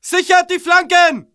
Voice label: cover flanks, german

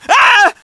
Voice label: scared

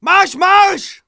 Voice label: ger move out, german

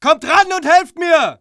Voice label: ger backup, german

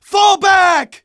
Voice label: us fallback, american

